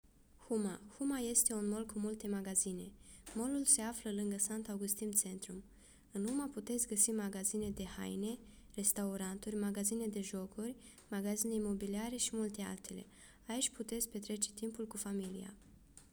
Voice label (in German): Rumanisch